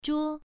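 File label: "zhuo1", Chinese pronunciation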